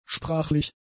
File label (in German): "sprachlich", German; adjectives